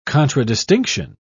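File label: "contradistinction", British English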